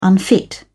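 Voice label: "unfit", English, uk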